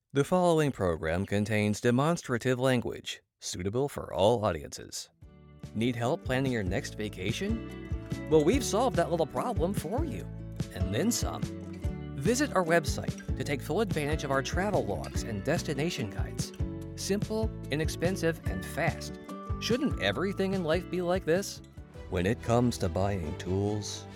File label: Commercial